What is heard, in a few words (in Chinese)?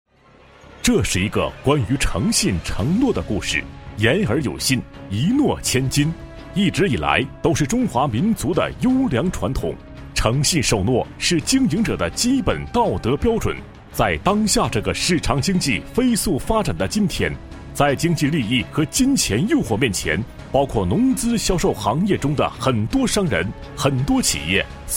男 专题 偏激昂风格, 国语男声配音; 为诚信买单; 磁性专题